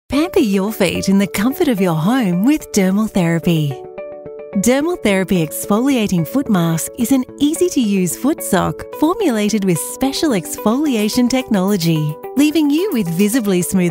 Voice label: nurturing online commercial